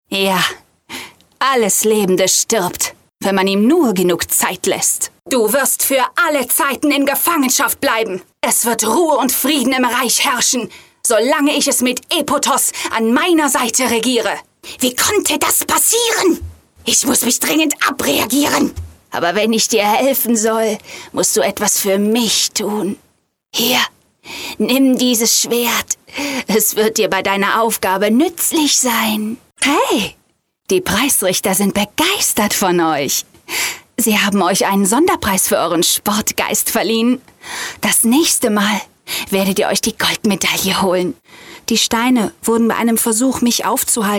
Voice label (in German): stimmvariationen